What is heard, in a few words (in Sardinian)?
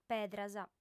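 "pedras", female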